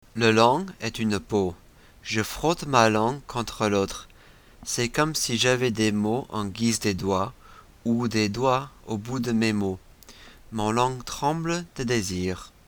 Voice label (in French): proverb, fr